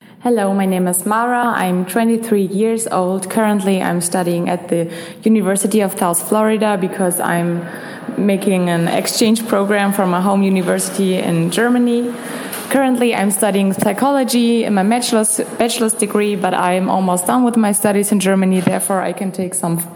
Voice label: German Female 23

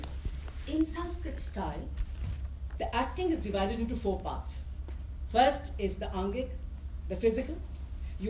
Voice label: India, Punjabi; asia

